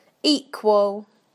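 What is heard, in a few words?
"equal", British English; PictureDictionary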